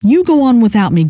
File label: go on, scientist female